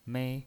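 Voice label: "mei", pinyin voice, Mandarin Chinese, first tone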